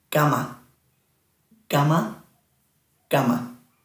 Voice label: "gama", Dhurga